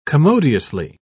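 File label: "commodiously", English, British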